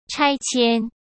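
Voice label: "拆迁", Mandarin Chinese; chinese-flashcards; hsk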